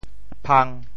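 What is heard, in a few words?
"phang1", Teochew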